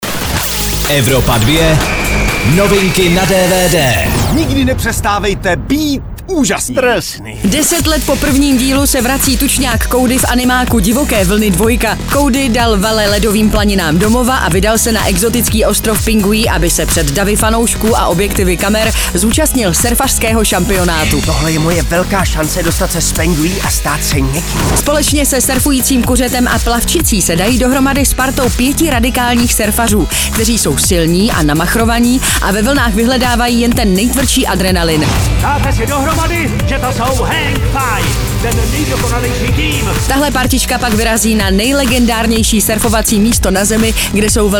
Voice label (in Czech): dvd news; evropa2; divoke vlny 2